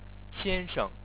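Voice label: "xian1sheng", Chinese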